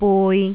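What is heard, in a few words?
"bui3", Jyutping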